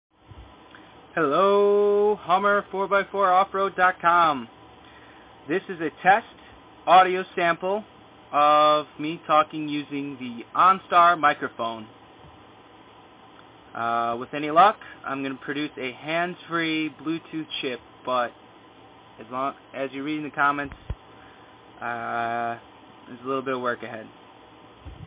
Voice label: HUMMER Hands Free Microphone Test